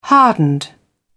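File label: "hardened", British English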